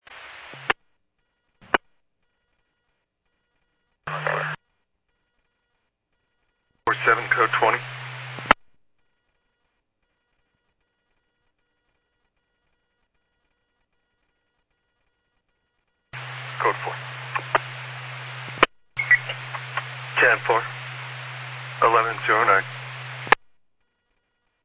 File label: Medevac, scanner; NativeAirAmb